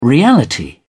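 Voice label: "reality", British English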